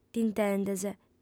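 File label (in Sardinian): "t'intendes", female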